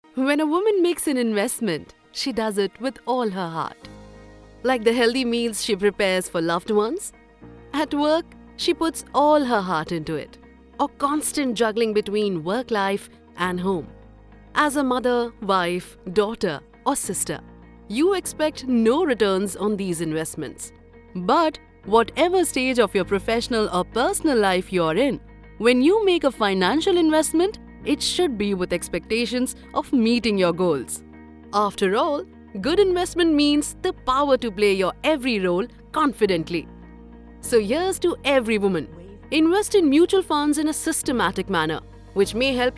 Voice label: explainer eng